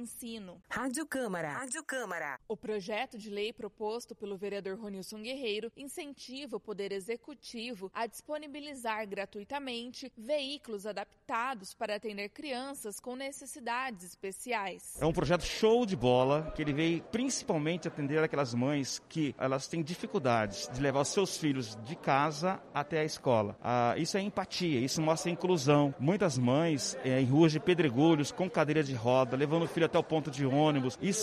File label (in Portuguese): spot transporte radio camara